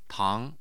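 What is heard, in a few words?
"tang2", Mandarin Chinese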